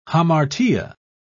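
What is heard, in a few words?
"hamartia", British English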